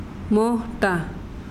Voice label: "cuerno", mazahua

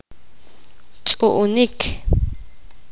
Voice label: poqomam chupar

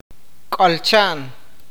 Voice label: "sentarse", awakateko

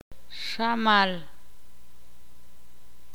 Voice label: "fuego", ixil

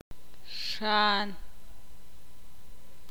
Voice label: "zancudo", ixil

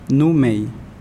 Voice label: Nawat palabras mano; pipil

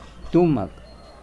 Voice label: Nawat palabras grande; pipil

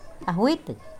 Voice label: Nawat palabras mojado; pipil

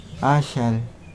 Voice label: Nawat palabras arena; pipil

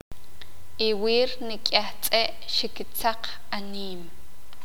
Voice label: "ayer los perros estaban corriendo", Sakapulteko

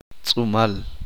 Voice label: uspanteko piel de humana

